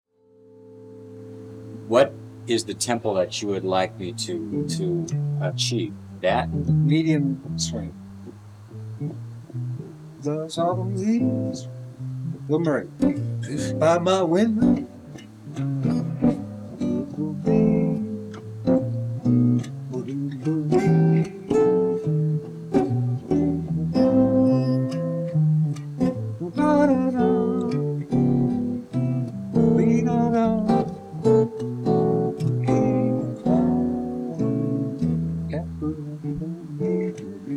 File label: Left Voice Right Guitar; Hard Stereo Mix